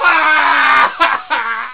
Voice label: man wah, cartoon sounds